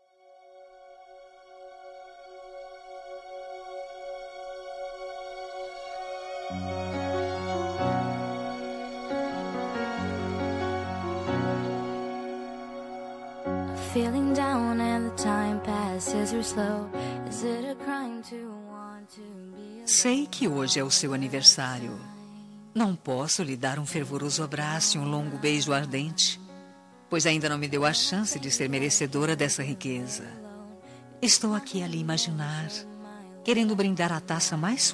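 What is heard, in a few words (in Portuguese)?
Aniversario Com Conquista Feminino, Voz Feminina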